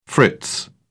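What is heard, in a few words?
"fritz", British English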